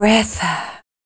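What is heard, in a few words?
vocal female, Dance Vocals, spoken words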